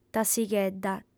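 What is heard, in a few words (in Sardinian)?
"tassighedda", female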